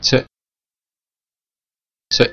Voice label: hebrew pronunciation t